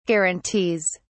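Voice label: "guarantees", British English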